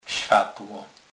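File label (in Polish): "swatlo", Kurpie dialect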